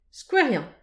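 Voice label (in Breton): "skouerian", Breton; petit precis de prononciation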